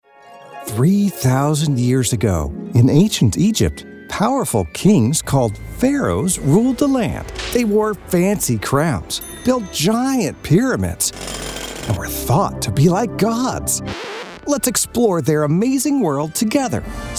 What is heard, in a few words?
voiceover elearning childrens